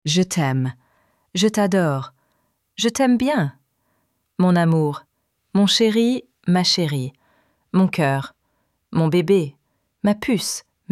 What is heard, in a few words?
hi my love in french